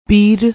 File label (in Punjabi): "peer", Punjabi